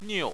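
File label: "niu" fourth tone, Chinese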